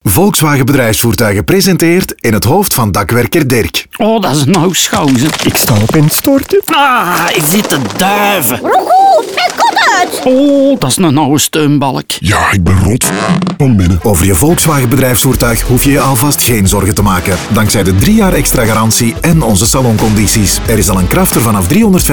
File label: Radiospot; Volkswagen Bedrijfsvoertuigen; saloncampagne; voltage